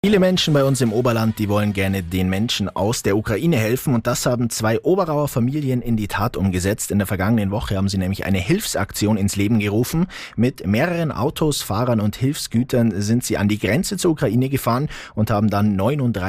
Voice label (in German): Aircheck Radio; Radio Oberland